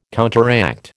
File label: "counteract", American